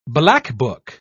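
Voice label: "blackbuck", British English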